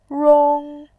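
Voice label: "rong", Mandarin Chinese, first tone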